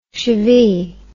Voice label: "shivee", words